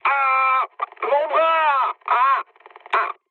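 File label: damaged pilot, mood high, French; War Thunder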